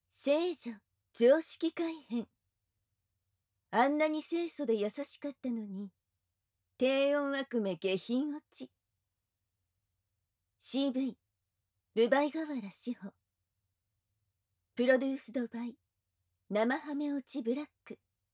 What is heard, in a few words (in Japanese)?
タイトルコール, ASMR